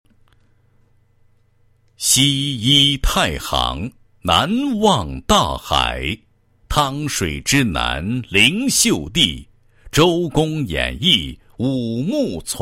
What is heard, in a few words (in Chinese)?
男 学校, 国语男声配音